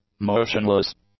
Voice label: "motionless", English; adjectives